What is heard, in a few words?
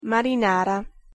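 "marinara", Italian pronunciation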